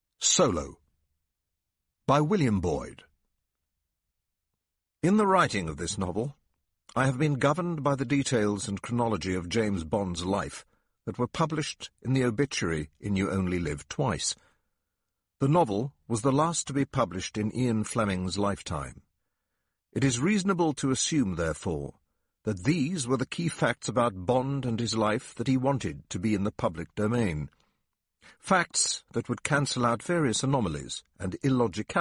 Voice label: AUDIOBOOK REEL